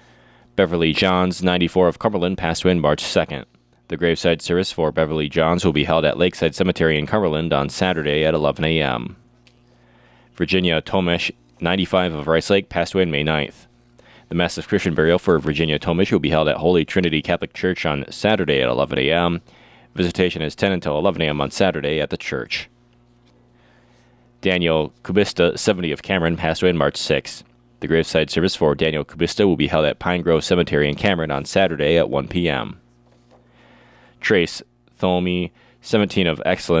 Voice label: Funeral Announcements